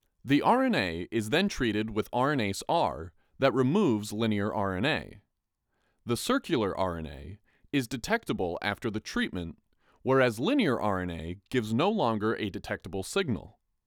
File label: Voiceover